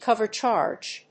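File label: "cover charge", English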